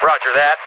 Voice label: Dispatch; Mirror's Edge (2008); Unused Assets; New Eden